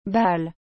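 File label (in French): "balle", French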